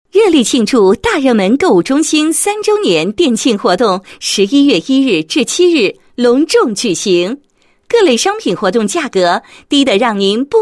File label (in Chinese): 女 促销 购物中心周年庆促销, 配音案例